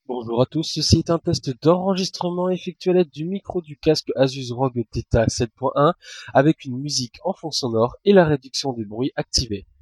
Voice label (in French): test micro, Asus ROG Theta 7.1 AI on